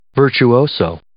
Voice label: "virtuoso", English

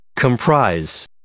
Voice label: "comprise", English

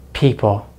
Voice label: "people", American English; Vowels in American English; easypronunciation

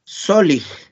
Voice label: "solij", Náhuatl; codorniz; quail

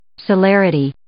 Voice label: "celerity", English

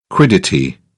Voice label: "quiddity", British English

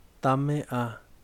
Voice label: "tiburón", Yaqui; Vocabulary

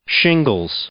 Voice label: "shingles", English; noun

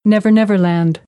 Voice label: "never never land", American English; ɚ - əɹ

